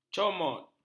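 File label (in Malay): "comot", Malay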